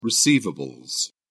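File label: "receivables", British English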